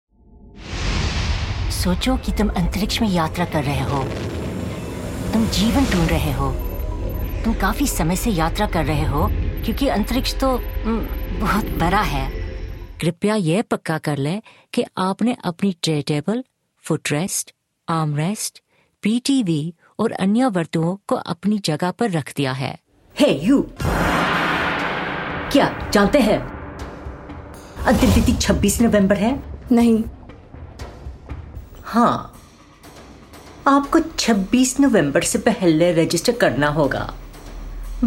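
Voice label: Hindi, General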